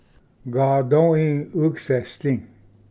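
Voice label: "gadoi ugasesdi", Cherokee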